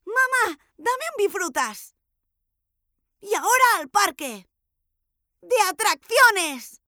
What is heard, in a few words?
female spanish kid comercial; ideasonora